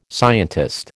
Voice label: "scientist", American